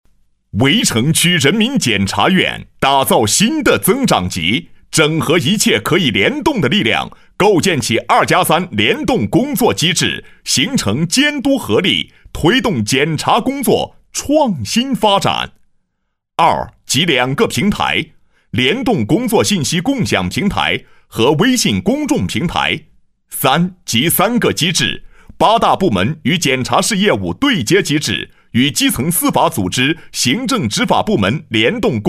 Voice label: 宣传; 围城区法院

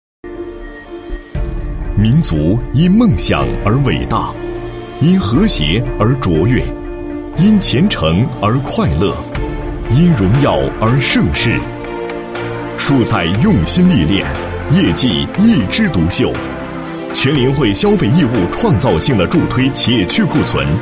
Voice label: 男-企业宣传; 金宝融集团宣传

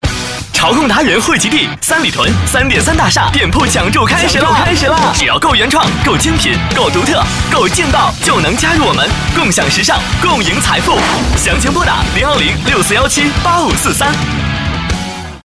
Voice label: 男 年轻时尚; 三里屯3.3

